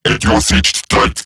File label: Cover Me Robot Large; Battle; Eximus; Eximus Corpus Robotic Large; en